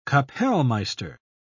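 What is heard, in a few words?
"kapellmeister", British English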